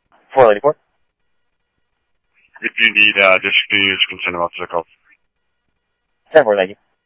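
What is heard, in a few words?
police department, scanner audio trunk; ThompsonPeakHigh; SCTSDLE PD - PD2 ScottsdalePolice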